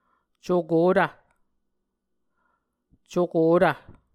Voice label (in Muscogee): "cokotv", Muscogee